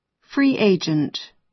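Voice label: "free agent", American English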